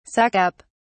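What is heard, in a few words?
"suck up", British English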